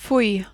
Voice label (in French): "fouille", French